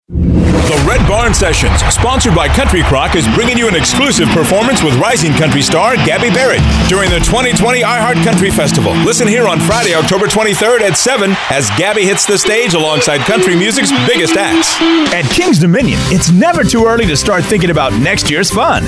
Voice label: Voice Over